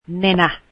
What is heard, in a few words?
nose, finnish